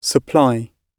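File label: "supply", British English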